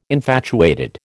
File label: "infatuated", American